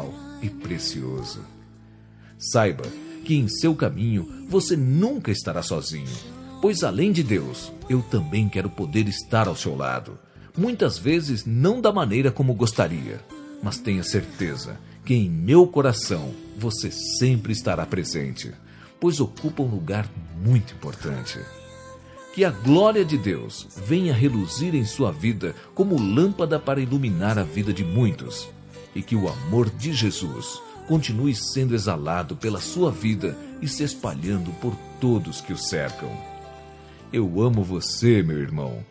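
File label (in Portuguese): Evangélica Aniversário de Irmao, male, telemensagens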